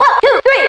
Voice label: "1 2 3", Voice; numbers and letters

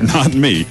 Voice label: not me, announcer; Voice

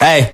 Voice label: "hey", voice

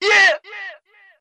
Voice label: "lit", male; ooh ahh

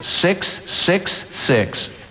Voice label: 6 6 6 male; numbers and letters

